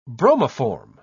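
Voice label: "bromoform", British English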